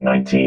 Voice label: "nineteen", robot